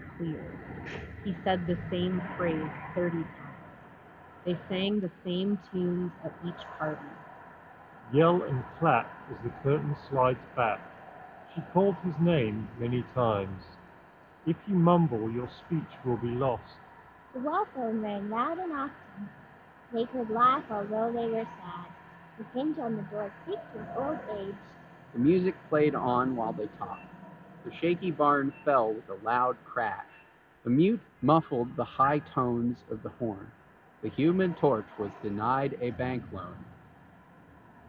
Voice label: Beyerdynamic Amiron Wireless BT Street microphone sample; Beyerdynamic Amiron Wireless review